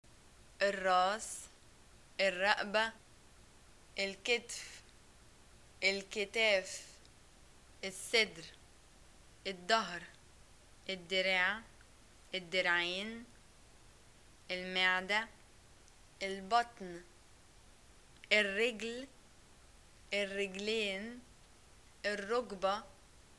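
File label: body parts, arabic, Egyptian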